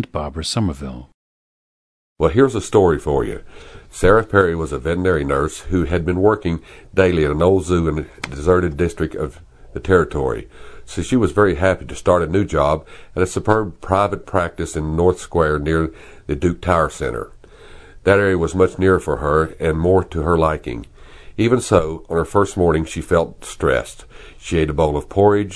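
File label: west virginia, International Dialects of English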